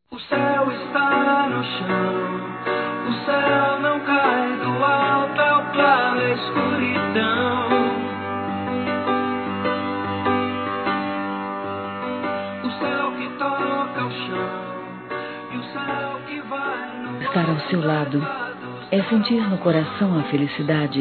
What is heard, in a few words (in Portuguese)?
ANO NOVO ROMANTIC. F; Telemensagens; Natal e Ano novo; fem